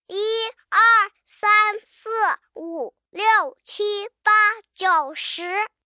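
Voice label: Numbers Mandarin 1 to 10, 1 boy, 4 yrs, Mandarin counting 1-10, human voice, kid; Numbers, Foreign; child, speak, talk; Talking Sound Effects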